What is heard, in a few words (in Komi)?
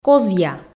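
"козъя", Komi, female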